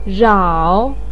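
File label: "rao3", Mandarin Chinese, pinyin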